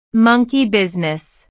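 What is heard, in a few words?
"monkey-business", English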